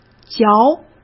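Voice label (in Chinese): "嚼", Mandarin Chinese; chinese flashcards; hsk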